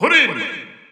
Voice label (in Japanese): Japanese Announcer; Jigglypuff; SSB4-SSBU